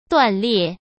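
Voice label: "断裂", Mandarin Chinese; chinese-flashcards; hsk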